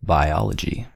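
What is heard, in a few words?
"biology", American English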